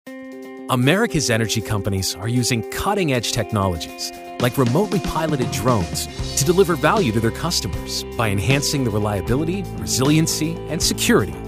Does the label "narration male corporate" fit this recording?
Yes